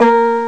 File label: steel voice; Amiga Soundtracker Sample Packs